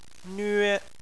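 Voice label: "nve" first tone, Chinese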